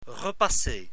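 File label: "repasser", French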